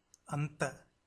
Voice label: "antha", Tamil